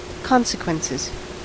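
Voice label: "consequences", US English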